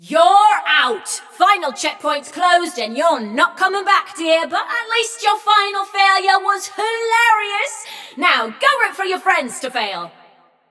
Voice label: Scrap Run Inform Runner Eliminated, Announcer